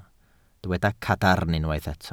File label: "cadarn", Welsh